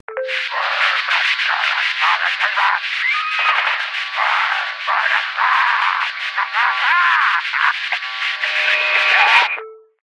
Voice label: Pirate Broadcast; Events; DynamicEuropa